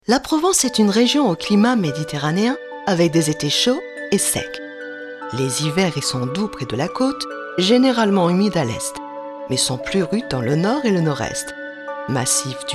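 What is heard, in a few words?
French female; Le clima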